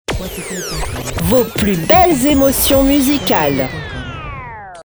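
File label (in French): vos plus belles emotions musicales, jingle radio voix féminine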